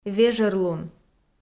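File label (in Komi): "вежӧрлун", Komi, female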